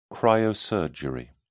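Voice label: "cryosurgery", British English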